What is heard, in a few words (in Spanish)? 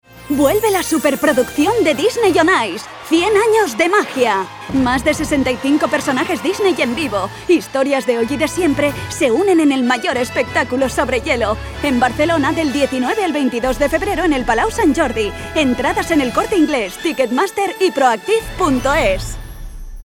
Castellano; Disney On Ice